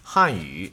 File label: "Hanyu", Chinese